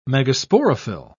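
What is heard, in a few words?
"megasporophyll", British English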